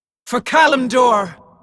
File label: female demon hunter warcry, en